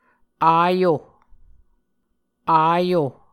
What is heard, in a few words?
"ayo", muscogee